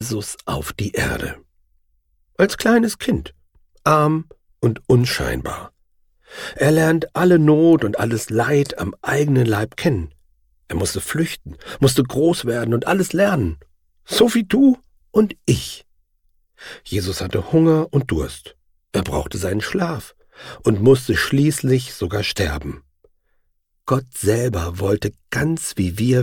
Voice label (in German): Erzaehlung